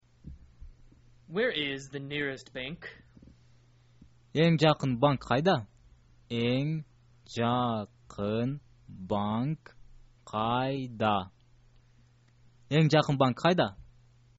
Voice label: nearest bank, kyrgyz